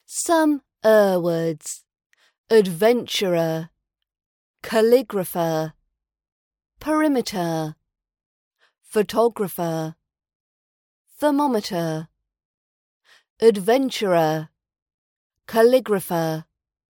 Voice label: er ending words RP British accent pronunciation practice